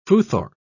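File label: "futhorc", British English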